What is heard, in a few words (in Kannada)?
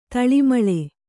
"ತಾಳಮೇಳ", Kannada